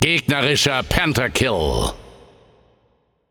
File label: Announcer German Spree Enemy 5 Kills; Spree Classic; Paladins